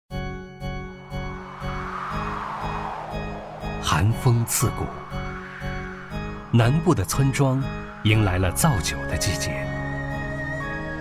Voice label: foreigner; ゆったりした